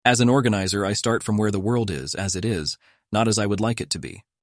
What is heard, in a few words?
default male